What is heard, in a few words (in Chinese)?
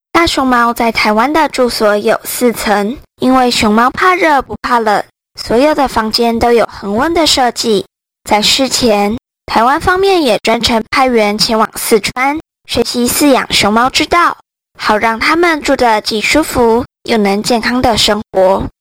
大熊貓旁白; powerdirector